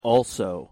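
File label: pronunciation "also", English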